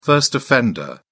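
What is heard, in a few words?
"first-offender", British English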